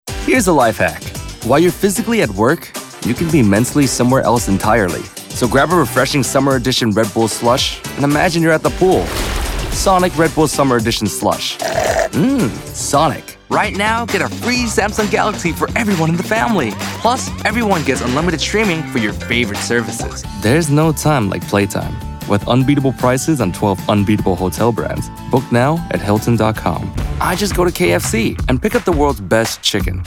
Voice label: vo commercial